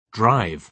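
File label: "drive", British English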